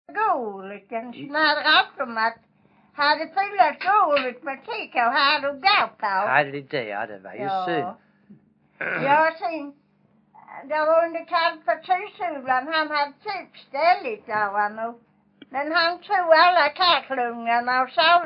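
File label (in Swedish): Vånga Skåne, dialekter; Folkminnen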